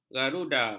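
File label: "garuda", Malay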